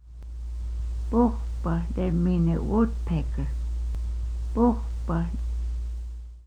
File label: woodpecker, quapaw words